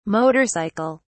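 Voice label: "motor cycle", British English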